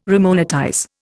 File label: "remonetize", Indian